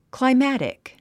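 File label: "climatic", English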